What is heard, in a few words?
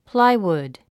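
"plywood", English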